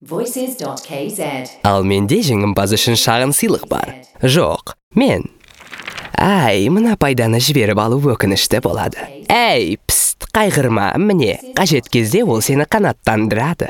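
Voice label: Kazakh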